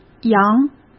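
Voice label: "扬", Mandarin Chinese; chinese flashcards; hsk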